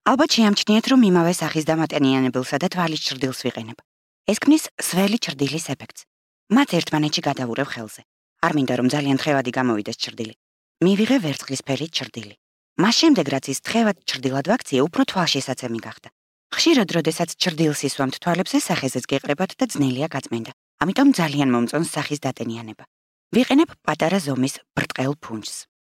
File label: Georgian Female